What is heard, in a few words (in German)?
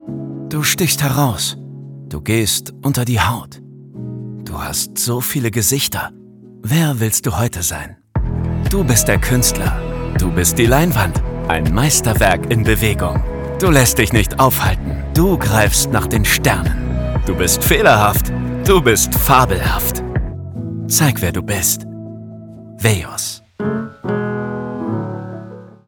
werbung faszinierend intim